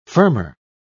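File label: "firmer", British English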